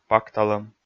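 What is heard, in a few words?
"пакталӧм", Komi, male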